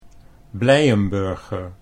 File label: "Blijenburger", Dutch